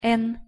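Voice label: "н", Russian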